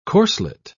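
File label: "corslet", British English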